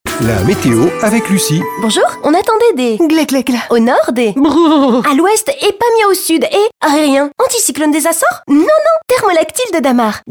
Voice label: Pub radio aigu; Damart

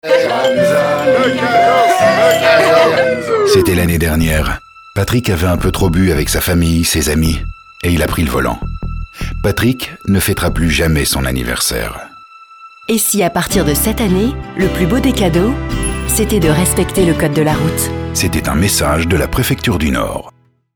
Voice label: Pub radio Sécurité routière medium

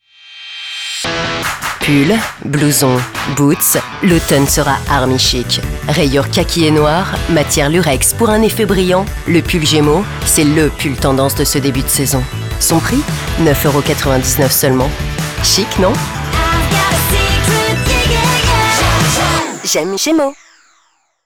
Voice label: Pub Radio; Gemo Army chic

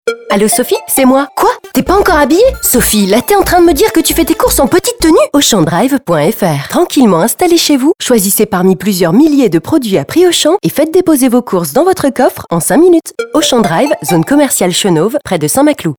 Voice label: Pub Radio drive; Auchan

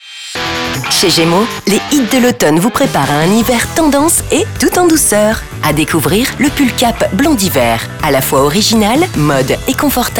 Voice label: Pub radio; gemo

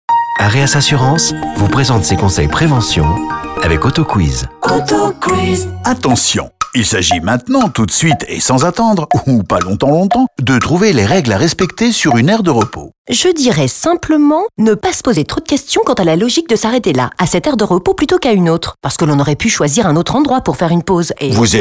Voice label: Pub Radio autoroute; Radio Vinci; Autoquizz

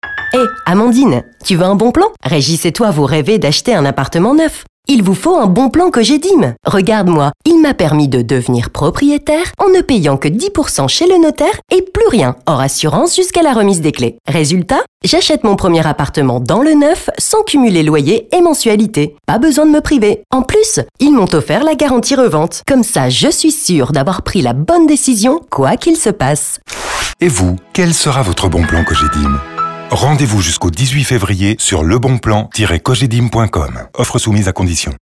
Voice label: Pub radio medium; Cogedim

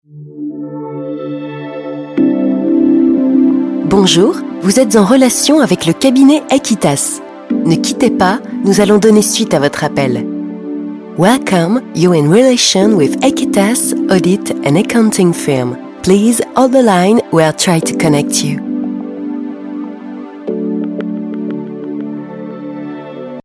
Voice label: Repondeur telephonique; Cabinet Aequitas

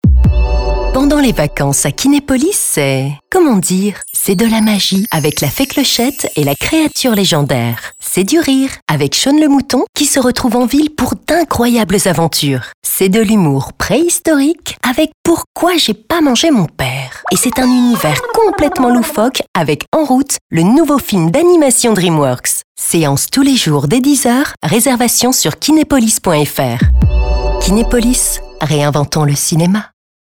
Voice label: Pub radio; Kinepolis

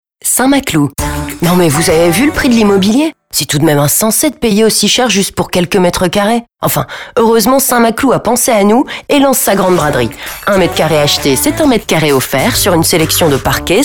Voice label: Pub radio; St maclou grande braderie

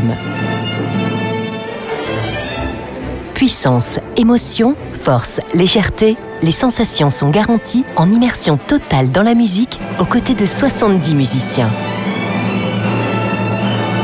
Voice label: Pub radio coeur orchestre

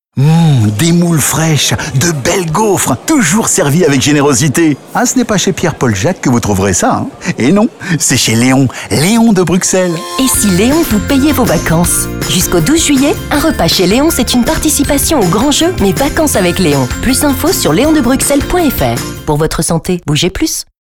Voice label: Pub Radio; Leon de Bruxelles

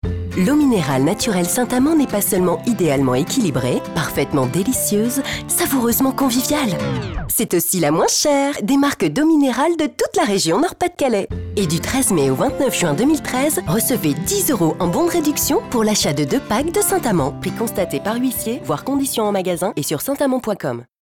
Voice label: Pub radio; saint amand

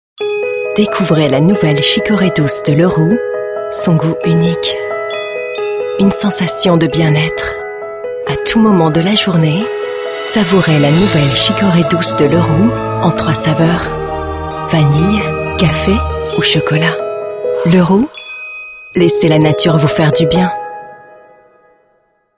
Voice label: Pub Radio; Chicoree Leroux

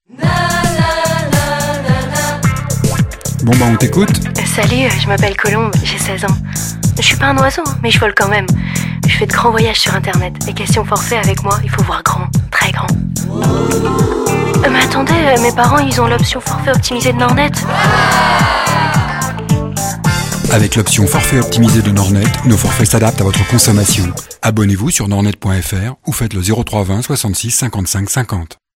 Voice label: Pub radio medium aigu; Nornet

